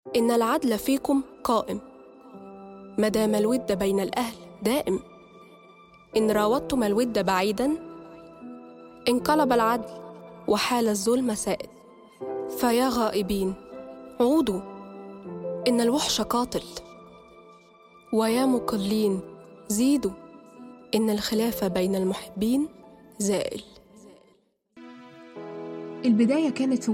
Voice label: Commercial Arabic